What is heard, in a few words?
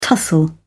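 "tussle", English, British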